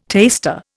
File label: "taster", Indian